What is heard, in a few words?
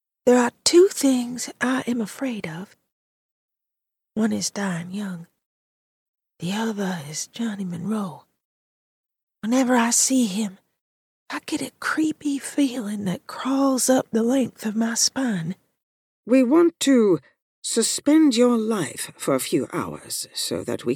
Audiobook